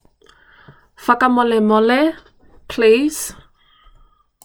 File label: Fakamolemole Please, Tuvalu Language; Tuvalu Language Week; 2022 Pacific Language Weeks